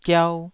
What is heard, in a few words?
"giau2", Taiwanese syllable